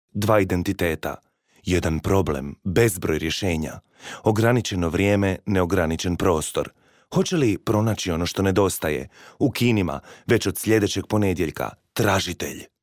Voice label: male croatian voice over sales

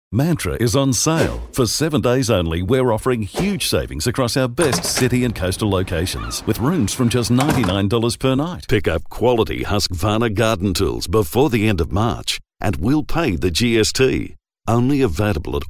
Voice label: male australian voice over sales

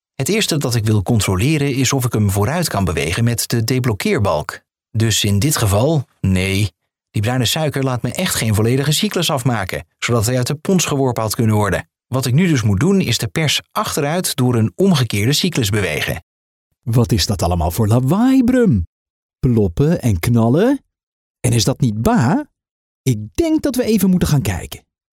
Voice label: male dutch voice over talent character

